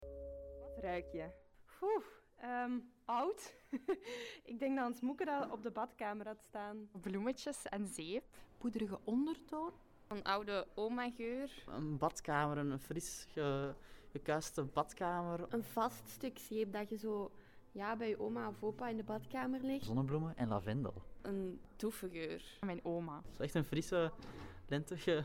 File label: Voxpop